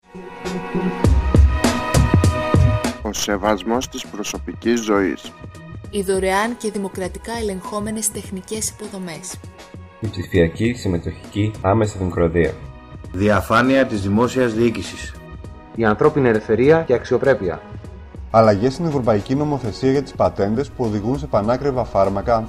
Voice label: radiofoniko spot